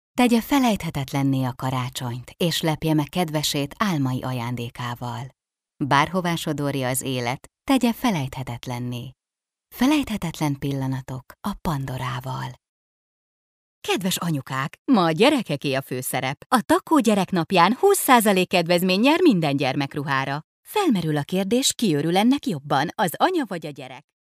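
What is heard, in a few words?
Hungarian, female, narration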